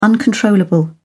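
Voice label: "uncontrollable", British English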